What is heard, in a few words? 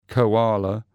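"koala" English; animals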